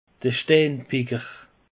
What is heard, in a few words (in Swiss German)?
"steinbicker", alsace; peche